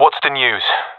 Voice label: request report, professional; vo; Counter Strike Model Pack; CS:GO